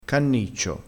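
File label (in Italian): "canniccio", dialettale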